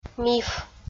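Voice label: "миф" Komi, female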